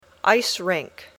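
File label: "ice rink", English; Sports/Hobbies (Deportes/Pasatiempos)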